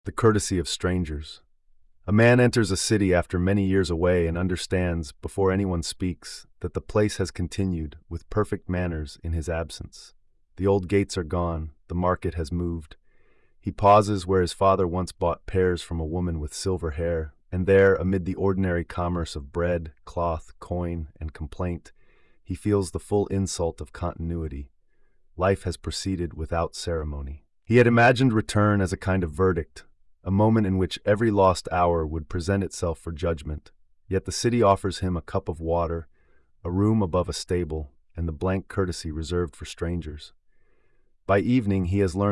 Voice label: narration